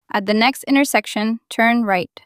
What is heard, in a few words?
Female Turn Right